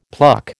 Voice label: "pluck", American

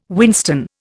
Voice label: "whinstone", Indian

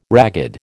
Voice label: "ragged", American

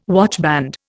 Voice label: "watchband", Indian

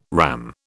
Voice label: "RAM", British

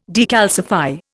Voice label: "decalcify", Indian

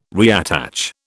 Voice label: "reattach", British

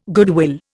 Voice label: "goodwill", Indian

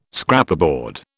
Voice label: "Scraperboard", British

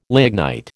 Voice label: "lignite", American

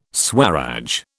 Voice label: "Swaraj", British

